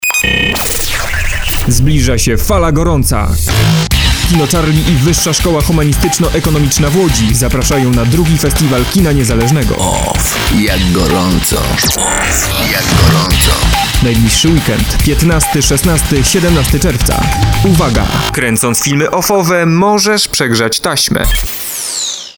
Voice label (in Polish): spot reklamowy